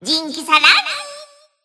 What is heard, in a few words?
System Voice; BBCP; Taokaka